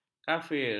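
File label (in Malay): "kafir", Malay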